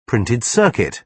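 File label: "printed circuit", British English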